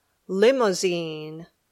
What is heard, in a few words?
"limousine", English